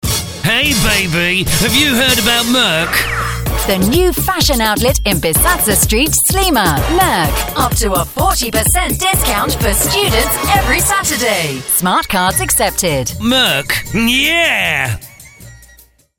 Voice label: radio commercial; vibefm